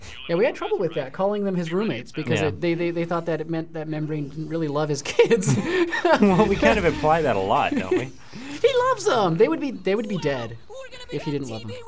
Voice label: commentary